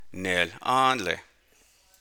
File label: navajo